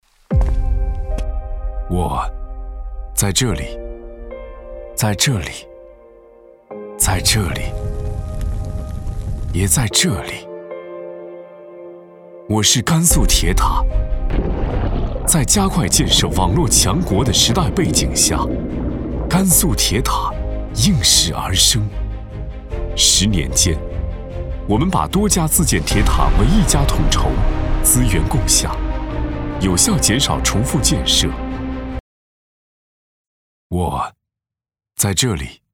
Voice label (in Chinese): 男 走心旁白; 甘肃铁塔; 未来可期